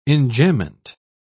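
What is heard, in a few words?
"enjambment", British English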